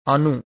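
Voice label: "anu", Pali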